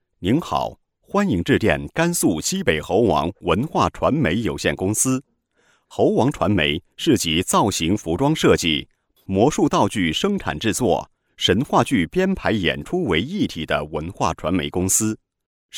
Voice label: 男 广告 彩铃; 文化传媒公司

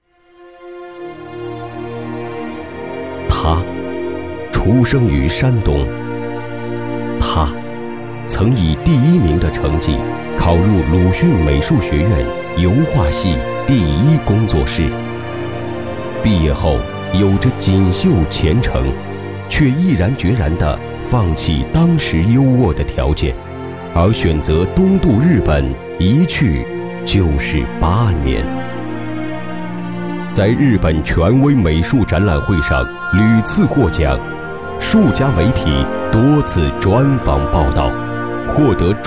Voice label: 男 专题 人物传记

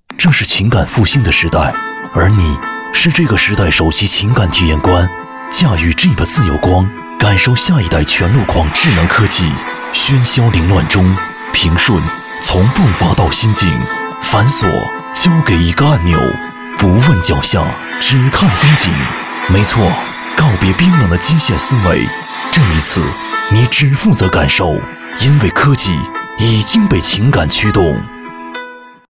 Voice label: 男 广告; Jeep 自由光

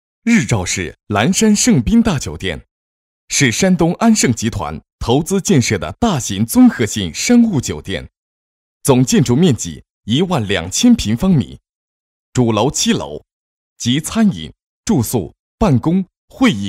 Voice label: 男96-广告-酒店广告（干声）

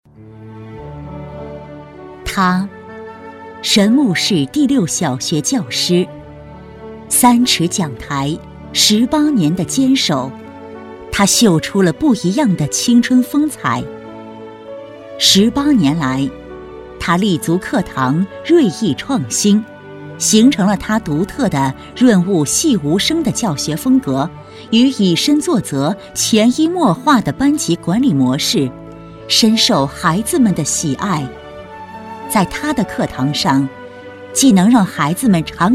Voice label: 女3-专题-人物介绍, 女

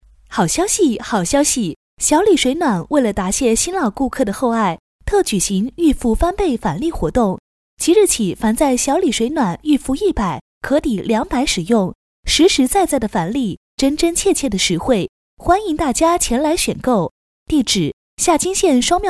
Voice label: 彩铃配音

